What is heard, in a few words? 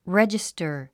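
"register", English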